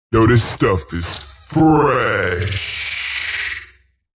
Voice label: Stuff Is Fresh, Radio, Male; Radio Sound Effects